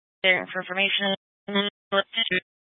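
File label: Incident Tactical 3, Scanner audio recording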